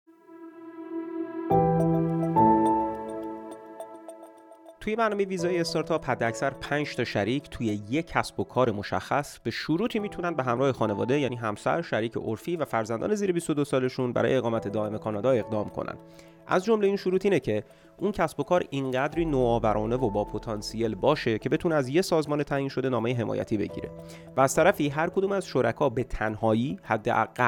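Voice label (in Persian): Farsi, voices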